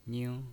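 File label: "ning" first tone, pinyin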